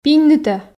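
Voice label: "пиньнытӧ", Komi, female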